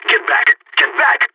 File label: fallback, radio; cstrike